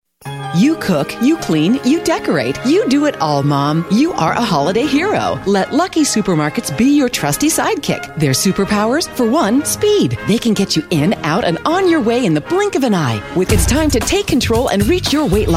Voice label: voiceover

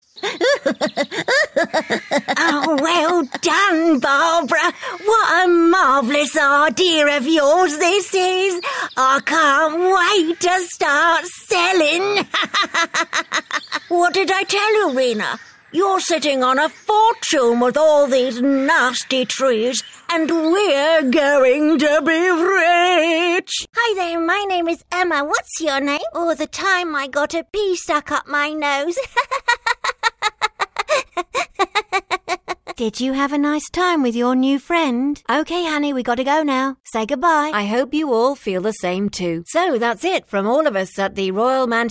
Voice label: characters voice over

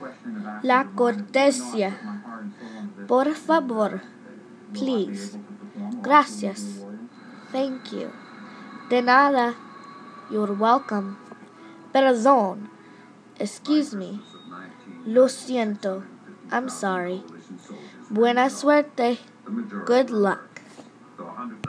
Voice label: spanish la cortesia

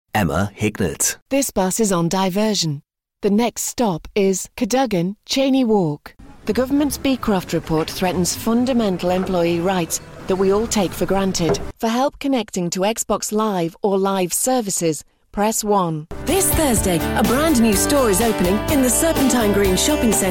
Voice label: voiceover artist

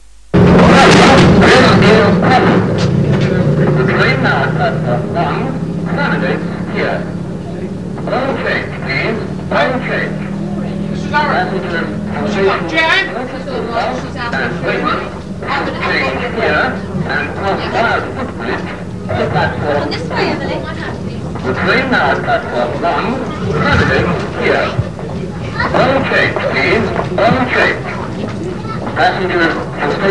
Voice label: pre-recorded platform announcement; poole

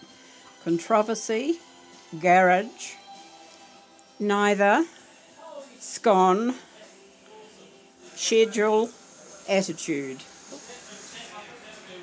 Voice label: tauranga nz uk for years female

